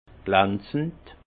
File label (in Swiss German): "glanzend", alsace; vin; brillant